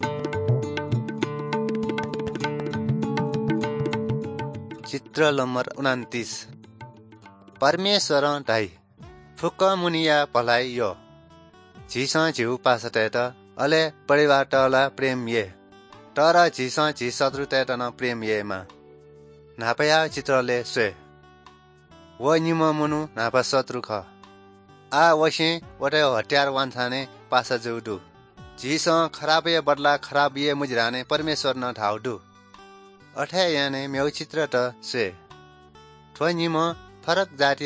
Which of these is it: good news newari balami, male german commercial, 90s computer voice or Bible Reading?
good news newari balami